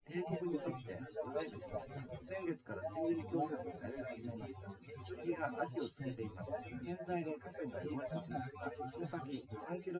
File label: test male synthconv 130ms 1m src; SiSEC08; SiSEC underdetermined